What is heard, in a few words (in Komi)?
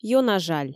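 ёна жаль, Komi, female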